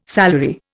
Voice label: "salary", Indian